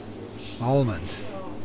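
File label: "almond", USA, male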